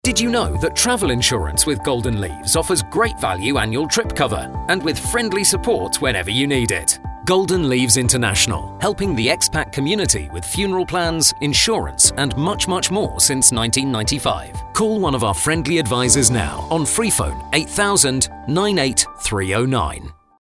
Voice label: Travel, male